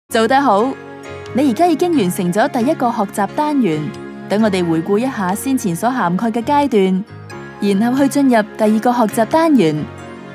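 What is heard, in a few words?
Cantonese Narration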